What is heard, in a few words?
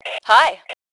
Global Hi, Female; T2 Female 01